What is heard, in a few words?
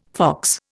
"fox", Indian